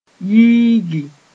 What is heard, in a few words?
"yiigi", Mooré